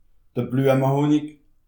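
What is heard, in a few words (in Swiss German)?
"blueme", Alsatian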